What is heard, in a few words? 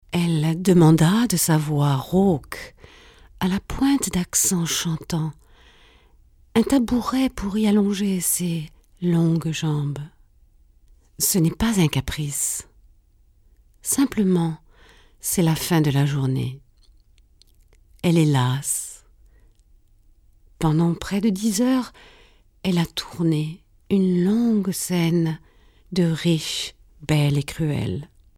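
französisch, French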